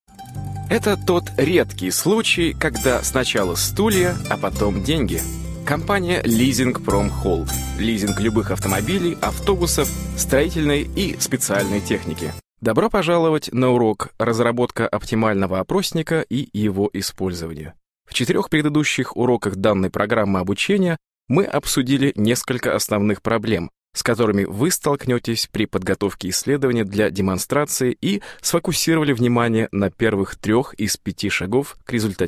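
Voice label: Russian, male, commercial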